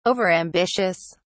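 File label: "overambitious", British English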